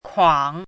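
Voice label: "kuǎng", Mandarin Chinese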